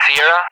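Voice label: "Sierra", English; Alphabet; DCS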